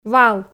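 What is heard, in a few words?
"вал", Komi, female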